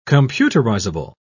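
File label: "computerizable", English, British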